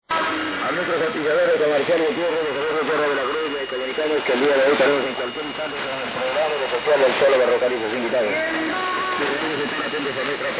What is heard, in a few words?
4549.4 Radio; Radio Naylamp; Lambayeque